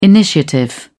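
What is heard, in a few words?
"initiative", British English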